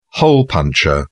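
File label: "hole punch", British English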